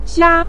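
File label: "xia" neutral tone, Mandarin Chinese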